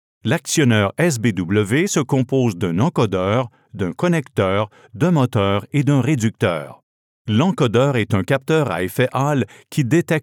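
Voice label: French Canadian, Corporate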